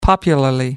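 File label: "popularly", British English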